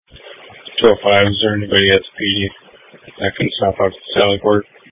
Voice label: Police Dispatch